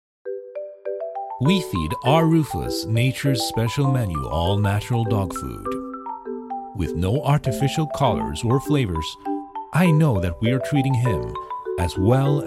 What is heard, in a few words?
english corporate videos